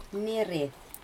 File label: "gills", Aneityum